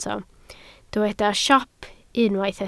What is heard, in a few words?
"siop", Welsh